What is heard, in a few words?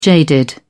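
"jaded", British English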